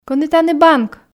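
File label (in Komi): Komi, кӧні тані банк, female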